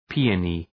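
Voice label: "peony", English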